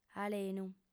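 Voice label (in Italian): "alenu", sarda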